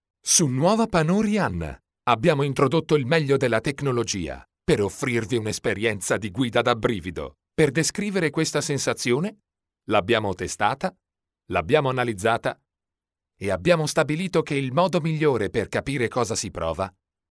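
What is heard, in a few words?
Italian male voice over, sales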